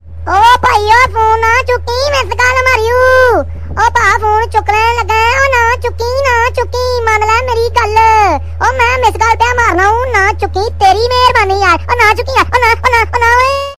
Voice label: phone na chukki miss call mar raha hu oi na na funny punjabi ringtone